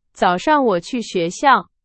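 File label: Chinese, example sentence; hsk1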